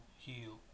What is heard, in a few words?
"heal", pre-lateral